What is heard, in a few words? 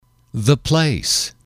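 "the place", English, male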